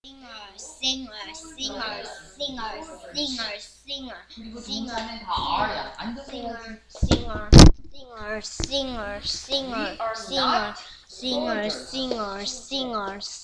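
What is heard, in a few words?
"singer", spoken word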